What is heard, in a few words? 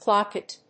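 "Clochette", English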